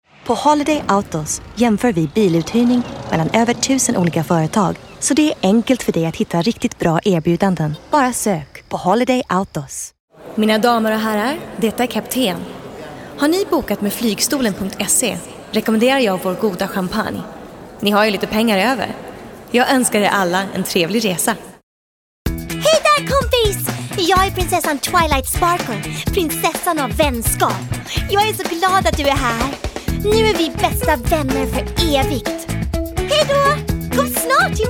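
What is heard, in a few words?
Swedish general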